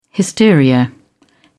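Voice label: "hysteria", British English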